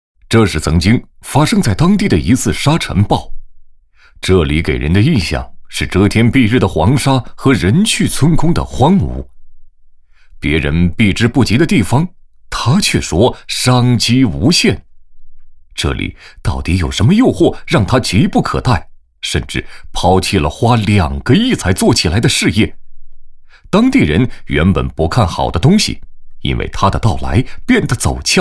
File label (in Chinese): 男 栏目 养殖; 类似致富经